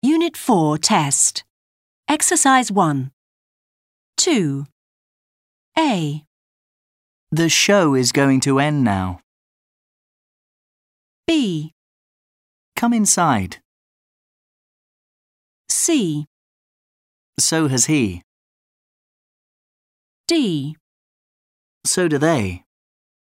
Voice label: Answer questions about connected speech